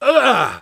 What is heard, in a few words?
Engineer negative vocalization, es